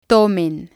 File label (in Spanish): "tomen", spanish